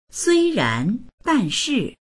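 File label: "sui1ran2 dan4shi4", Chinese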